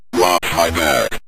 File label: watch My Back, Synth Voice; Kenney's Sound Pack